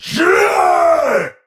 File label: Attack Effort Heavy; Tau; Dax; Battle Barks